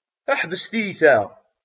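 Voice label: "Ḥebset tiyyta!", Kabyle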